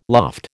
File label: "loft", American